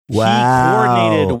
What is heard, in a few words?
drawn out "wow"